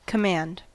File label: "command", us